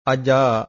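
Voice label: "ajaa", Pali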